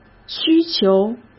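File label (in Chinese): "需求" Mandarin; hsk; chinese-flashcards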